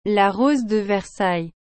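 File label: "La rose de versailles", French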